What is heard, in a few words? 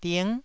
"ding9", taiwanese syllable